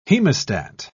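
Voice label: "hemostat", British English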